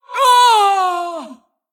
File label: Female Tol'vir Death